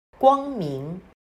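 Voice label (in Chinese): "光明" Mandarin Chinese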